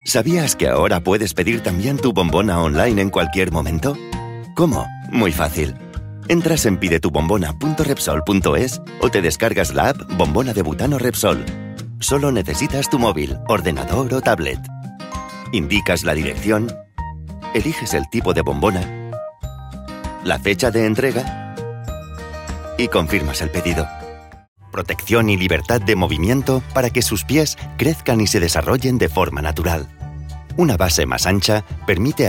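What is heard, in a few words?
European Spanish, Elearning; voice demos; GoLocalise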